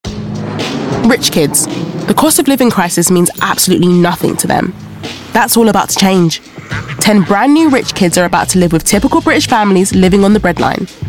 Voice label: narration; Rich Kids; RICH KIDS NARRATTION